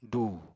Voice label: "dùu", Apatani